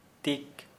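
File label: Santali male body lice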